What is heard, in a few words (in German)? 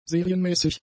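"serienmäßig", German; adjectives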